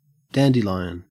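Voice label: "dandelion", Australian English; pronunciations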